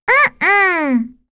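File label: Vox Deny, voices heroes English; Rehartna; kings raid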